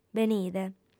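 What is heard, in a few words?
"benide", female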